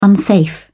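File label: "unsafe", English, British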